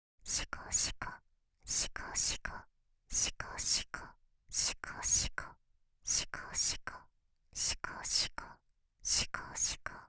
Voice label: しこしこボイス左; 小悪魔しこしこボイス左

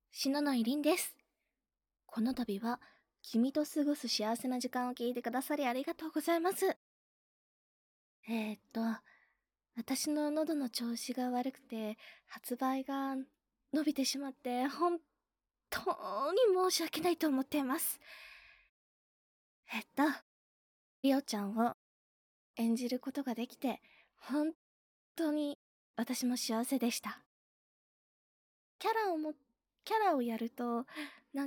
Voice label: フリートーク

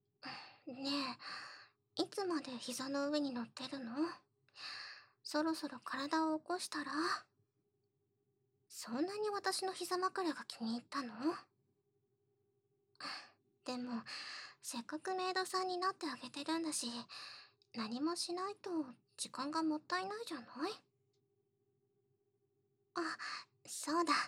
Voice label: 初めてのマッサージ, 効果音なし, ASMR

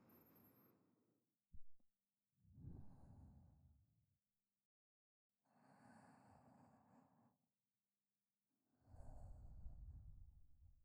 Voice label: 添い寝 右; おまけ右耳用

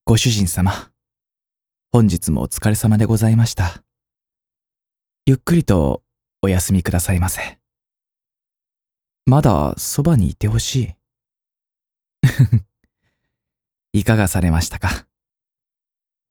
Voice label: 「おやすみ」執事

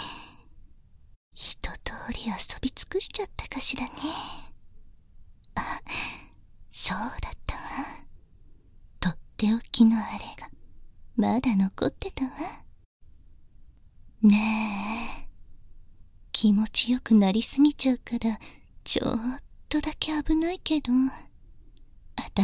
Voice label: 体の中心まで触手を突っ込んで刺激（簡易モノラル編集 効果音なし）

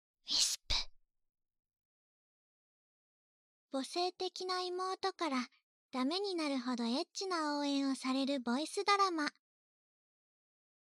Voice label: タイトルコール

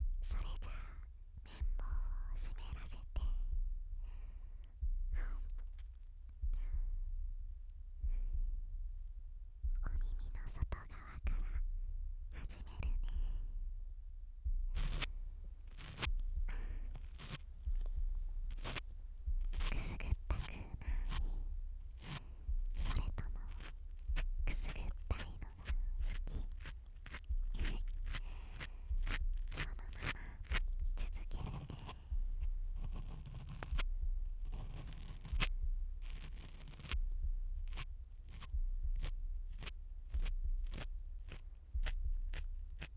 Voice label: お姉ちゃんにだっこされながら耳かき（左耳）

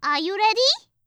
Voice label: あゆれでぃー?, 掛け声

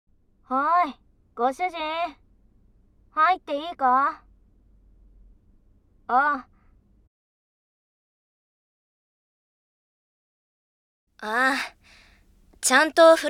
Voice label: メイドさんのカラッと綿棒（右耳）（声のみ~ノイズ除去なし）; ますずさんと耳かき

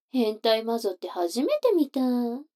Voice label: 女の子 台詞, ASMR

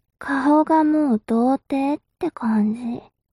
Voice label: mob 女子 台詞

